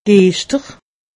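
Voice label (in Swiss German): "giester", Alsatian; medecine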